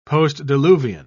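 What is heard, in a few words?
"postdiluvian", British English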